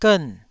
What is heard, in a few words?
"gern4", Taiwanese syllable